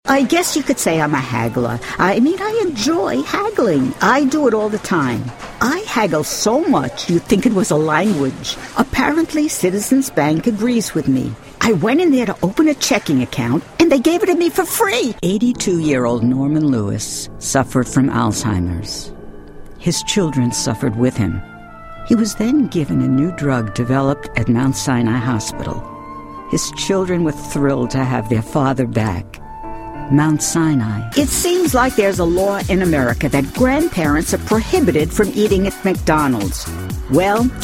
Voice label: mature